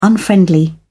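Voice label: "unfriendly", English, uk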